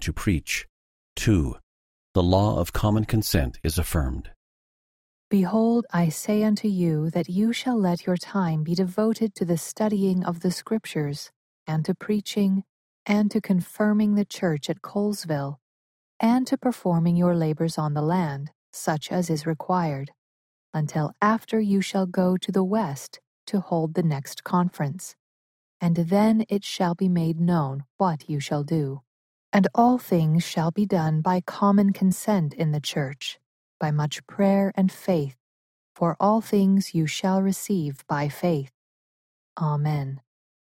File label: section female voice eng